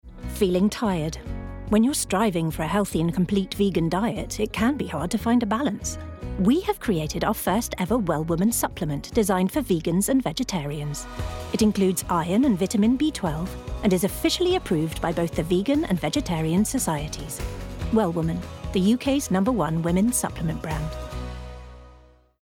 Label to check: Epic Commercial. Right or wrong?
Wrong